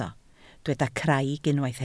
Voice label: "craig", Welsh